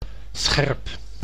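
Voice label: "scherp", Dutch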